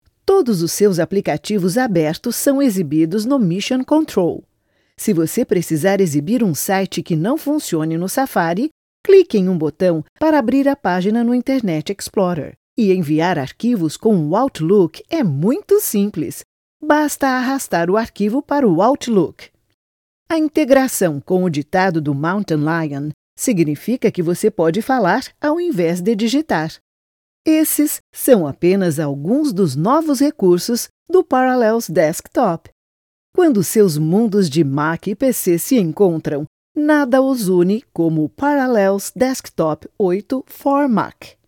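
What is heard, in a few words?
Brazilian Portuguese narration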